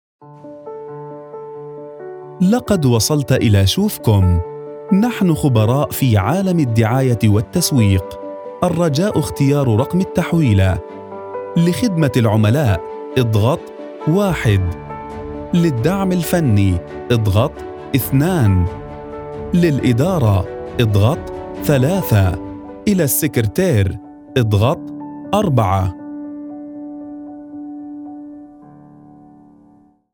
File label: Arabic Voice Over Warm Calm